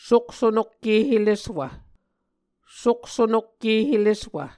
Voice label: "sokso nokke heleswv", Muscogee